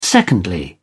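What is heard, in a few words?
"secondly", British English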